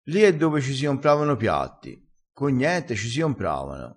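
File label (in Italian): "con gnente", dialettale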